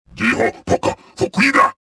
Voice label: suspicious; XBOX